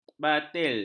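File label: "batil", Malay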